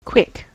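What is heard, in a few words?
"quick", US English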